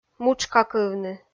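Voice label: "мутшкакывны", Komi, female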